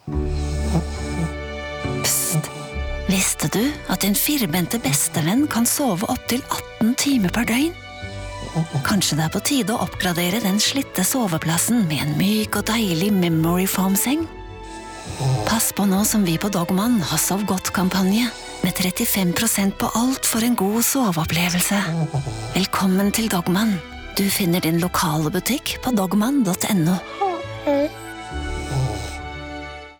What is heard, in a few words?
norwegian radio imaging; Imágenes de radio